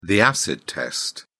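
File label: "acid test", British English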